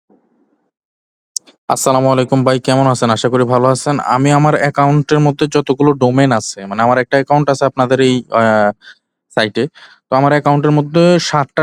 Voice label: voice message